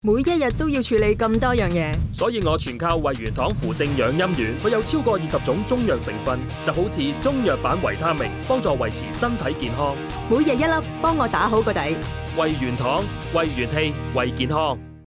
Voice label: Cantonese 電視廣告; 位元堂養陰丸